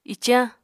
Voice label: "icañ", Apatani